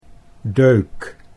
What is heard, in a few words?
"deuk", Dutch